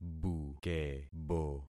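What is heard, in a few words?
"bukebo", concatenated syllables; Affixing experiment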